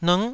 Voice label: "lng9", Taiwanese syllable

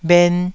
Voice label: "bhen1", taiwanese

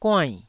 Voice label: "guainn4", Taiwanese syllable

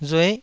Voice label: "rue9", taiwanese syllable

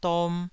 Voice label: "dom2", Taiwanese syllable

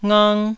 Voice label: "ngang1", taiwanese syllables

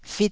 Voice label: "fit", Taiwanese

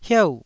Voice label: "hieu4", Taiwanese syllable

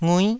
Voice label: "ngui9", taiwanese syllables

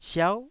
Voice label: "siau9", Taiwanese syllable

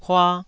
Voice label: "hua2", Taiwanese syllable

